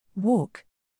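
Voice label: "walk", English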